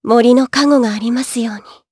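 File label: Victory, Japanese; Lorraine; kingsraid; jp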